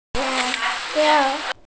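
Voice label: "là jiāo", Mandarin Chinese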